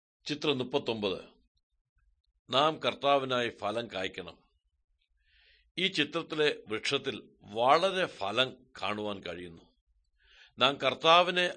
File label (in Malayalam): good news malayalam, drama; world bible translation center nt drama